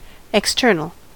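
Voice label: "external", US English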